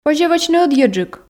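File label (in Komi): позьӧ вӧчны ӧдйӧджык, Komi, female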